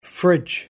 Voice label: "FUR fridge", English spelling